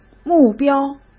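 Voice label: "目标", Mandarin Chinese; hsk; chinese-flashcards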